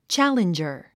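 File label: "challenger", English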